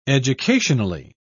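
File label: "educationally", British English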